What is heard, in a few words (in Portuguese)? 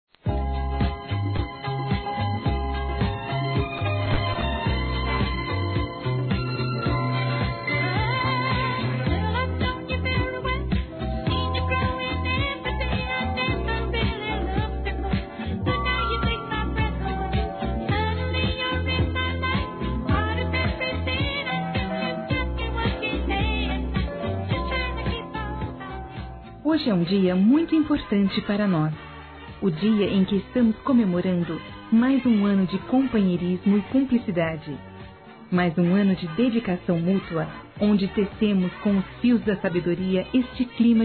ANIV. RELACIONAMENTO (F); Aniversários; Aniversários diversos; fem